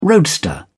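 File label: "roadster", British English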